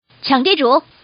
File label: grab, girl